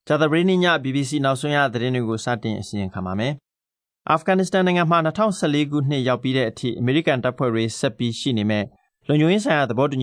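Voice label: Documentary, Burmese; GoLocalise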